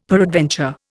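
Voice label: "peradventure", Indian English; Dictionary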